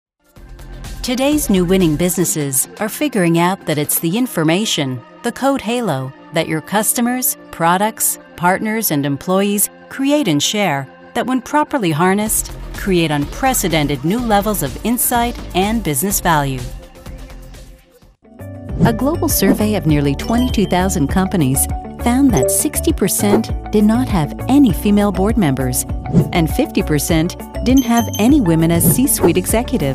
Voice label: Narration; TaDa

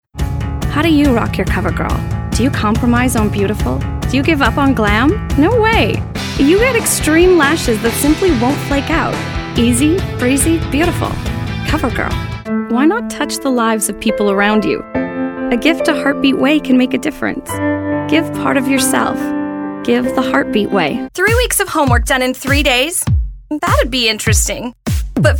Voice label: commercial; TaDa

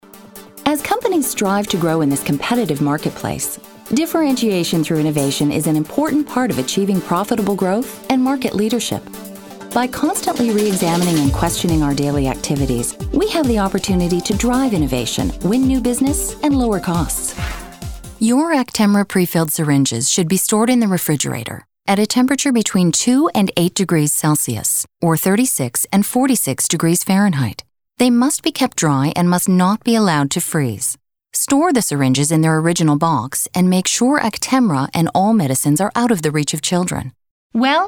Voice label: Narration; TaDa

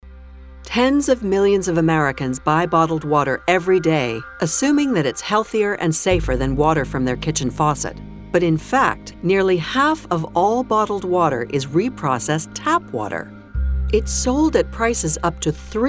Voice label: Narration; TaDa

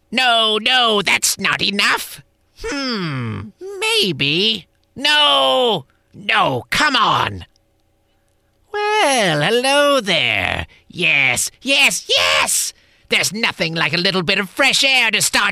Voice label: Character; TaDa

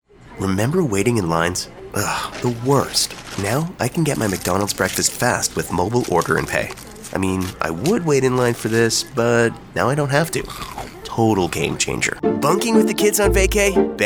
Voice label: Commercial; TaDa

